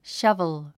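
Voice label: "shovel", English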